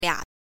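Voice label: "lia", Mandarin Chinese, neutral tone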